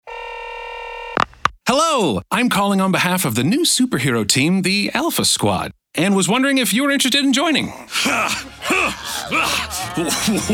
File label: Character